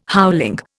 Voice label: "howling", Indian